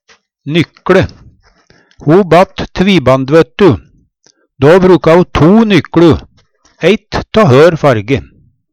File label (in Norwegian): "nykLe", Numedalsmål; Substantiv inkjekjønn; Tekstilhandverk